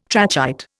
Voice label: "trachyte", Indian